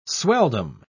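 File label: "swelldom", British English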